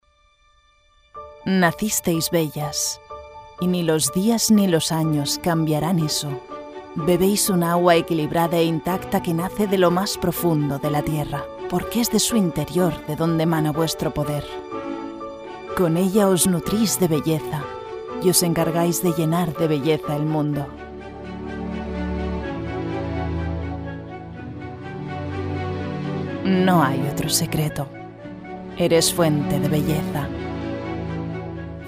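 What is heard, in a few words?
commercial soft spanish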